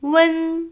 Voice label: "ㄨㄣ", Chinese